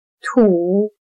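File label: "吐" Chinese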